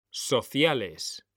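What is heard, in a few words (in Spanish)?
"sociales", Spanish; mekanta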